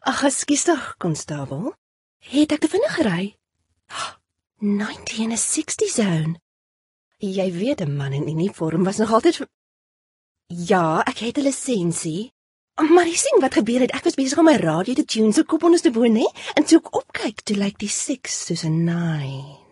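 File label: Afrikaans, Character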